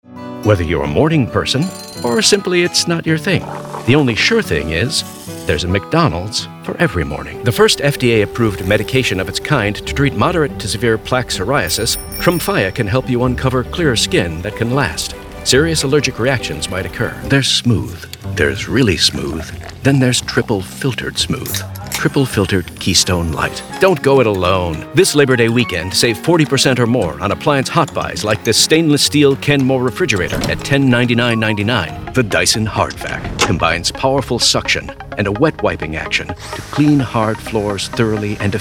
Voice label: COMMERCIAL